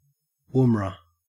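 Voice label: "woomera", Australian English; pronunciations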